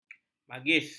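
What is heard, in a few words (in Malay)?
"magis", Malay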